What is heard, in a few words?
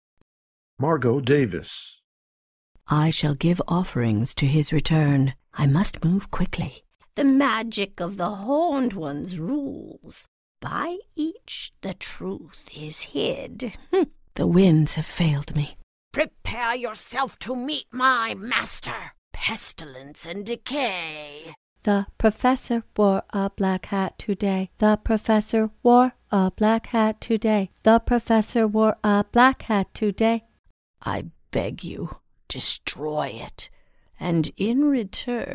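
animation voice; Women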